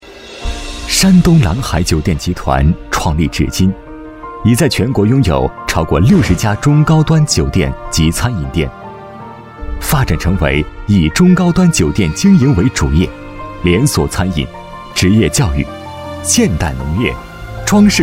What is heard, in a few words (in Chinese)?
男 企业 大气 流畅; 山东蓝海酒店